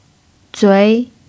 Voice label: "zui2", Chinese pinyin

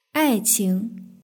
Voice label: "aiqing", Chinese; hsk5

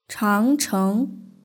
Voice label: "changcheng", Chinese; hsk6

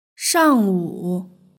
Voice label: "shangwu", Chinese; hsk5

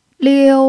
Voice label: "liu1", pinyin

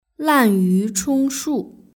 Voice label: "lanyuchongshu" Chinese

